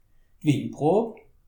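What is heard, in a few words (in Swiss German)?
"winprob", Alsatian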